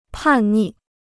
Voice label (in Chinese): "叛逆", Chinese